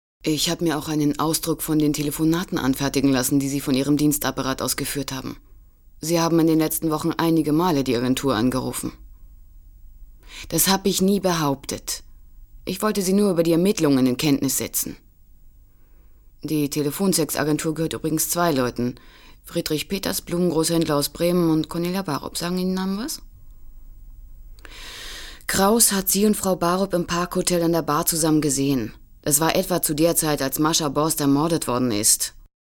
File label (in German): synchron